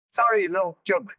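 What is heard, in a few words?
Voice Acting es M, Spanish, male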